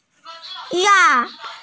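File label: "rang4", Mandarin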